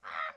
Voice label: Pig Chef, Voices